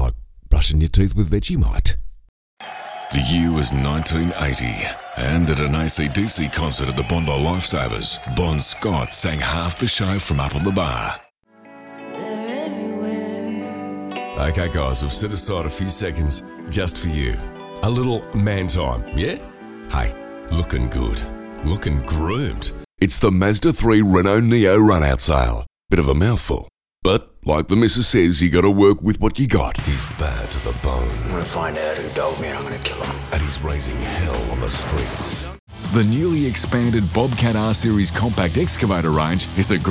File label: AUSTRALIAN ACCENTS AND CHARACTERS